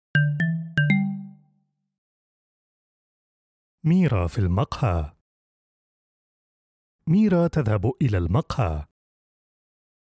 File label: Arabic Easy Reader; Mira Adam I